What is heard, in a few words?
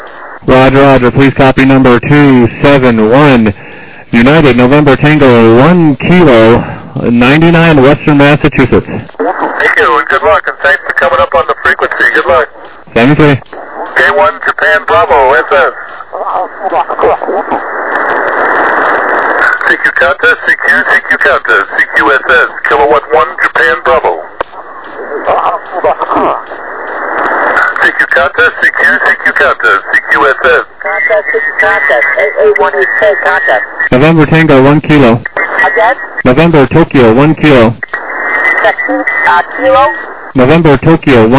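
lower sideband 7MHz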